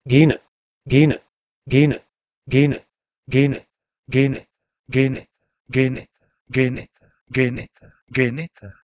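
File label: Proto-Indo-European genh1 to Ancient Greek geneta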